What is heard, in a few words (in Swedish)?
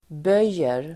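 "böjer", swedish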